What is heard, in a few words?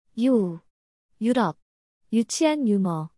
speech "yu", korean